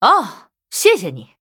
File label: Thanks, zh